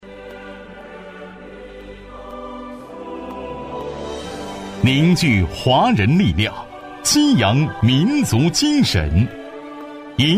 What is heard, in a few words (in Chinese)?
男 宣传片; 稳重磁性; 大气浑厚; 第二届全球华人影响力盛典暨一带一路投资峰会宣传片